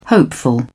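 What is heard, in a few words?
"hopeful", British English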